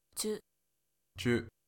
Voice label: "chu", Japanese